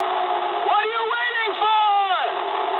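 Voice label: English attack position mood med; speech; War Thunder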